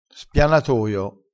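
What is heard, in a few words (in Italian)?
"spianatoio", dialettale, crespinese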